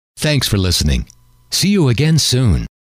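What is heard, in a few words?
thanks for listening see you again, male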